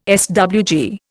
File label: "SWG", Indian